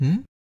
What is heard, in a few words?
doubt; Techmino; flore